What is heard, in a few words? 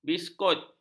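"biskut", Malay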